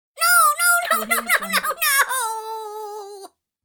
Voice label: minion crying no

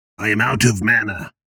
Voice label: vocal i am out of mana male 30s fantasy voice; rogue stock line

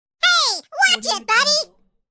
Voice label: minion hey watch it buddy

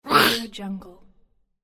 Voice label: cute kid vampire; fxprosound

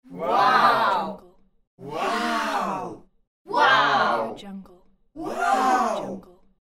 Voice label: small group saying wow

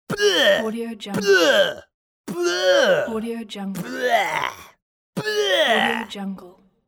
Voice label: disgusted male; disgusted male set

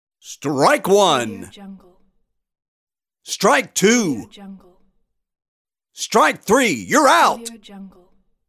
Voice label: baseball umpire calling strikes; applehillstudios

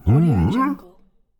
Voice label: fantasy giant confused

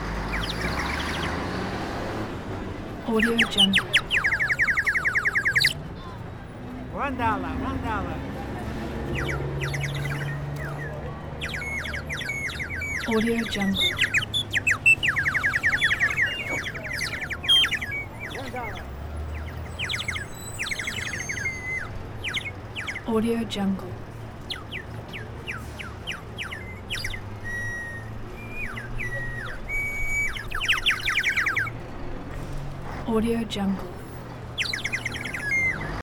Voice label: street vendor

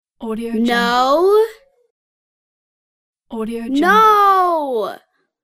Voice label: kid says no two pack

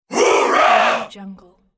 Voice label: marine oorah

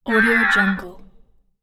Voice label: alien voice

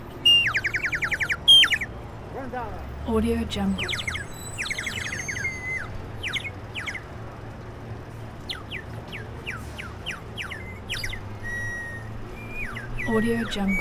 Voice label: street vendor

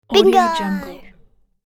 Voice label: boy says "bingo"

